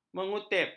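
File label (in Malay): "mengutip", Malay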